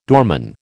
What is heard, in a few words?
"doorman", American